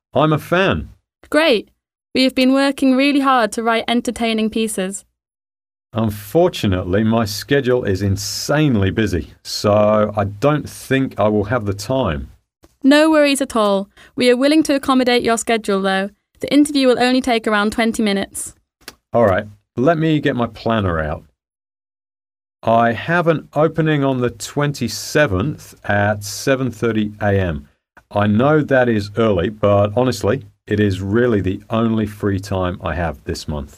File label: British M, British English